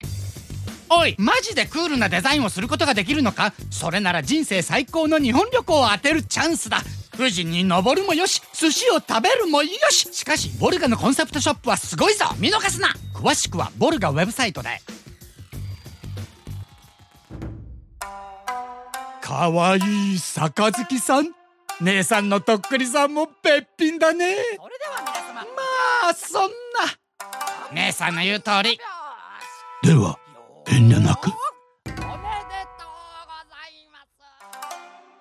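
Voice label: Japanese, Characters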